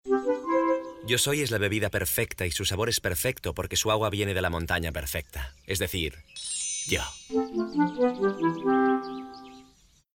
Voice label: male spanish milk comercial; ideasonora

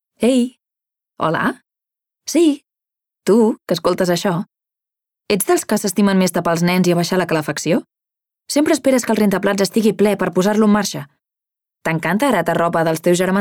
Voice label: female catalan gas comercial; ideasonora

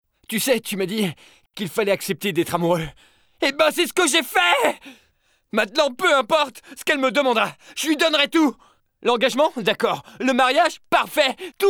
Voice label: male french acting comercial; ideasonora

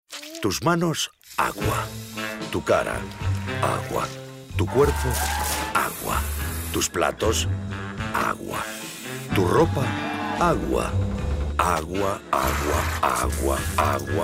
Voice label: male spanish wc comercial; ideasonora

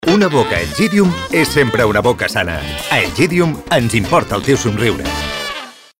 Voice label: male catalan dental comercial; ideasonora

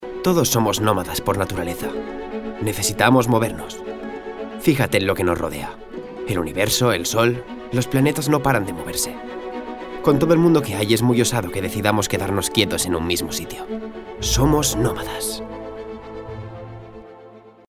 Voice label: male spanish comercial; ideasonora

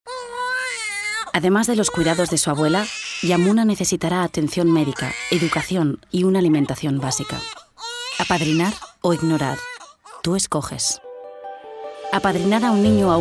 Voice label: female spanish foundation comercial

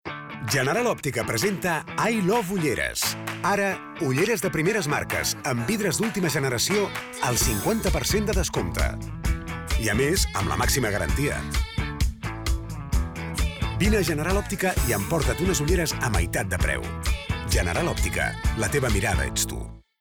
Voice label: male catalan comercial; ideasonora

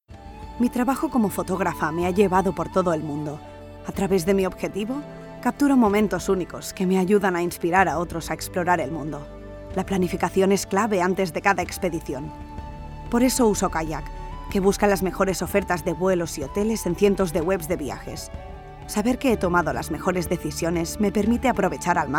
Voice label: female spanish travel comercial; ideasonora

